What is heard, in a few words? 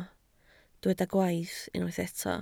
"gwaith", Welsh